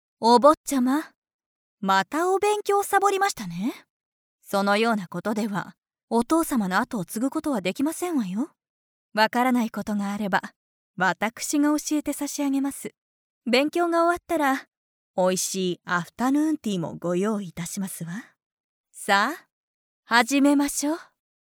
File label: female; 優しい女性